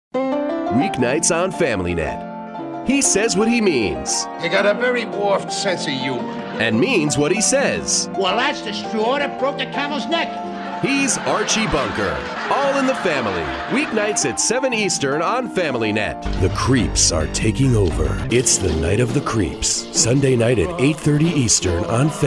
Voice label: english american promos; American English voice over professional